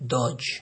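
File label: "dodge", Nigerian Pidgin, male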